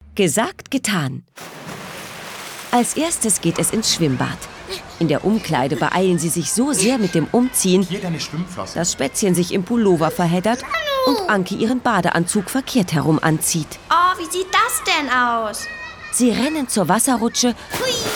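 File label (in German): Rolle Erzählerin Hörspiel; jumbo-verlag; juli zeh; jetzt bestimme ich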